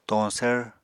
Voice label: "toñsvr", apatani

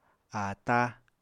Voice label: "ata", Apatani

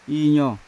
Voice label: "injo", Yawa; wood; unat

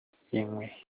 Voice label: feces, Olukumi

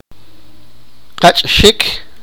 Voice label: sipakapense; bite

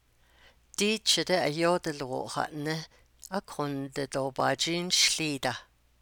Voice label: navajo; They say this car is fast but even so I don't; doo --- da frame to negativize verbs and phrases

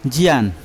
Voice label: "jian" fish, Yawa

